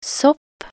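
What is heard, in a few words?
"sopp", Norwegian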